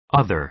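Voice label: "other", American English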